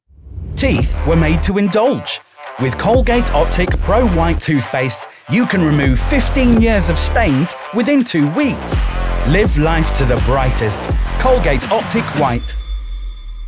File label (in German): Werbung